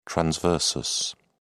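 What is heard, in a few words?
"transversus", British English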